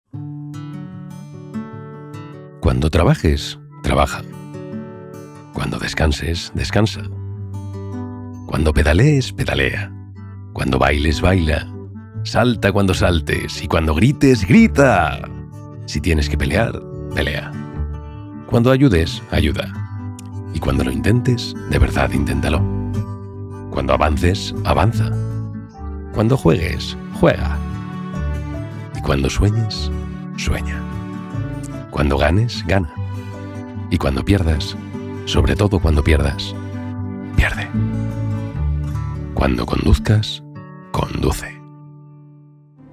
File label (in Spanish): publi profundo